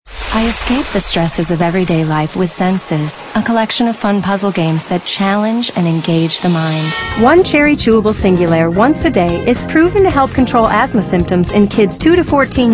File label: female, late20s late30s clear